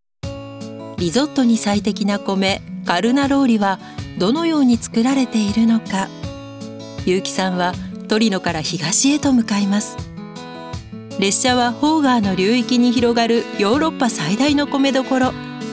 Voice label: 紀行 優しい; 「トリノ紀行」

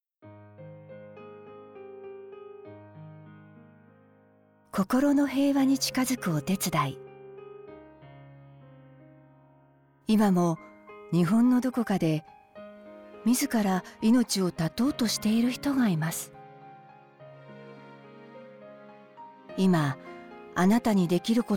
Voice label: 柔らか

